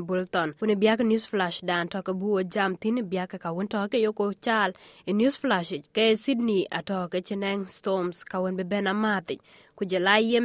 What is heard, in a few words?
dinka, podcast; SBS Dinka News Flash 20/02/2024; News Flash ee pɛɛi nïn thiɛ̈ɛ̈r rou pɛi rou runë bianabuur rou ku thiɛ̈ɛ̈rou ku ŋuan.